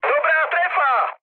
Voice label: bomb success, speech cze, mood med; War Thunder